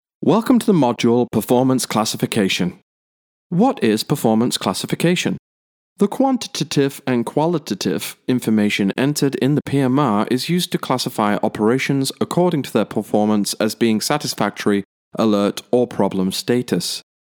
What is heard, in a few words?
UK